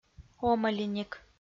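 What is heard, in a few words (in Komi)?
"омӧлиник", Komi, female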